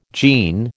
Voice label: "jean", American

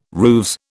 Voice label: "rooves", British

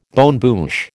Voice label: "bonne bouche", American

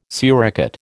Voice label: "suricate", American

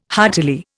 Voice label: "heartily", Indian

